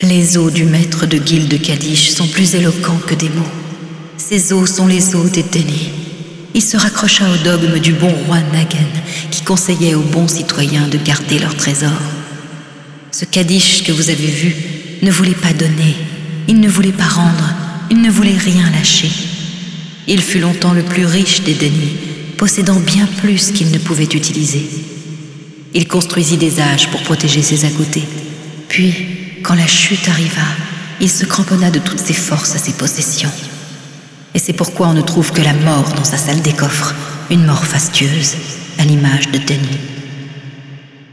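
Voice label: Speech french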